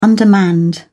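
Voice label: "undermanned", English, British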